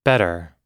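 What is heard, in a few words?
"better", US English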